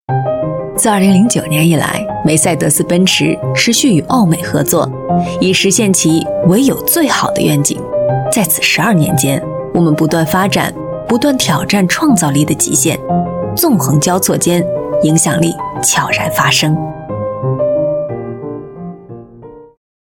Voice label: Mandarin China Commercial; Mercedes-Benz